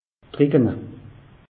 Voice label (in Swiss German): "treckene", alsace; meteo